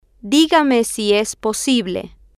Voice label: digame Si Es Posible, Spanish